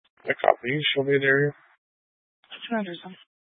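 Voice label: Police 1 Dispatch, Scanner audio recording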